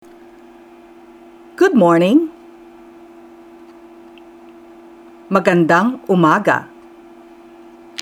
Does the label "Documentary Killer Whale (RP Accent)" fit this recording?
No